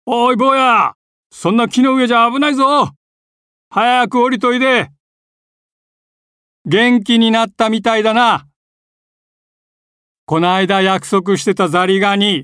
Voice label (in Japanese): 朗読